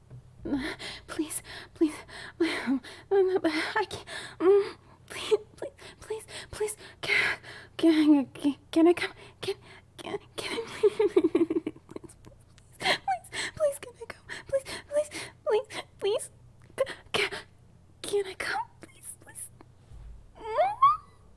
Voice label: Stutter Begging, Erotic audio